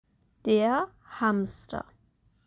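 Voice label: "Der Hamster", German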